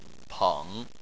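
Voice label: "peng" third tone, Chinese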